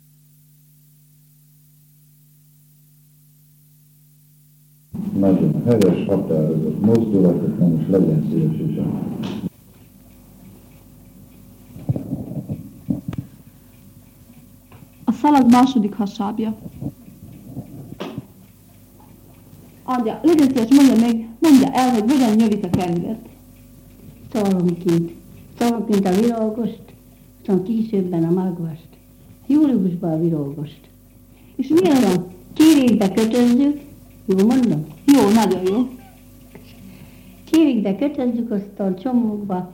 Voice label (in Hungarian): nyelvjaras gyujtesek